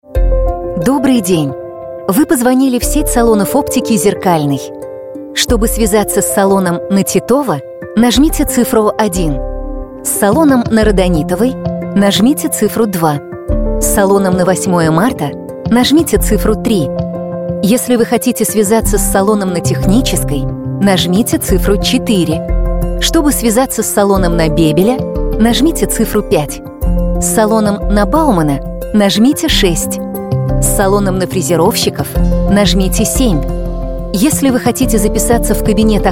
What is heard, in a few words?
IVR